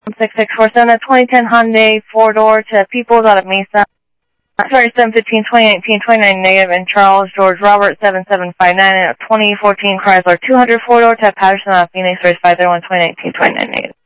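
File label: Sheriff East County, scanner audio trunk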